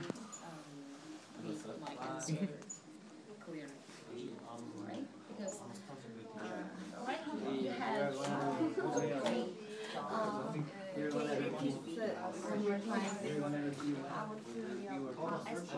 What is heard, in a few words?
English speaking